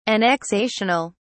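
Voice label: "annexational", British English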